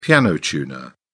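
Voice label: "piano tuner", British English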